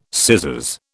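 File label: "scissors", British English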